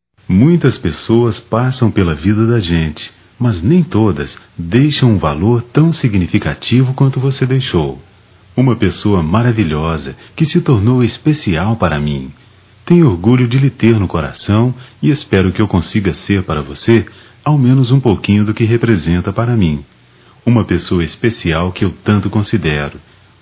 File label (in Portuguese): Madrasta, masc; Telemensagens 2017; Dia das Maes